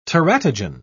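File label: "teratogen", British English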